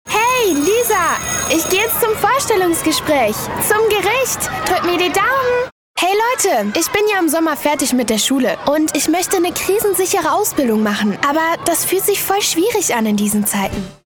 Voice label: Ausbildungsoffensive, Commercial (Werbung), Scene, German; Ausbildungsoffensive Funk - On; LOFT VOICES